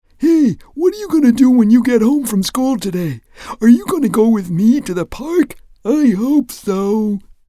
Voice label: Cartoon voice